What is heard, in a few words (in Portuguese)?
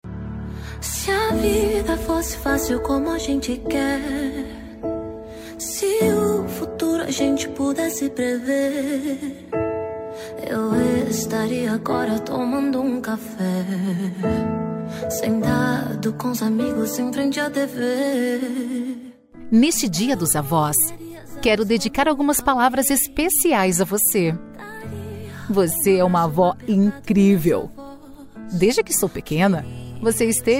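dia avó female gospel; dia do amigo a voz masculina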